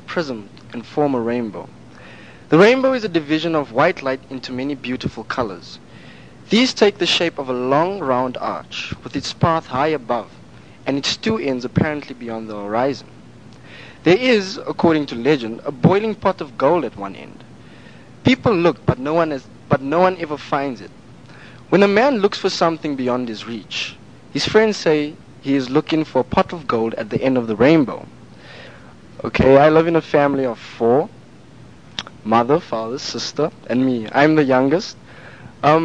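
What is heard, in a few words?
south africa, dialects of English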